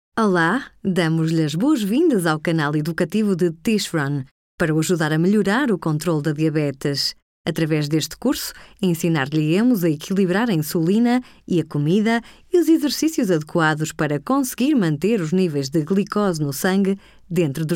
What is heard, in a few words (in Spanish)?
PT voz femenina media